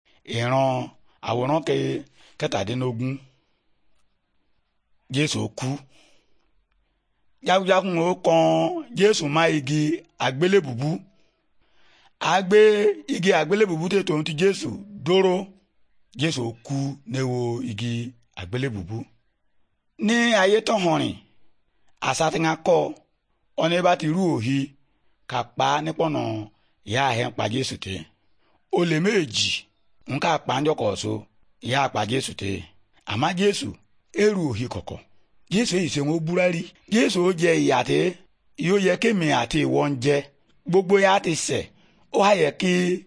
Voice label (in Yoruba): good news yoruba, bible